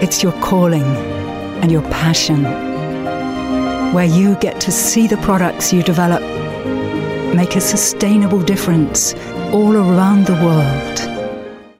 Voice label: imagefilm high tech meets purpose; Muttersprache Englisch